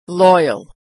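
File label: "loyal", American English; vowel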